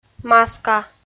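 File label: "маска", Komi, female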